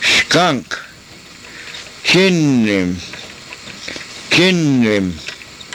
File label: "chinim", karuk